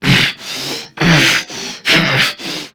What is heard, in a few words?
Struggle Crewmen; Warframe; CorpusCrewmen; CrewmanA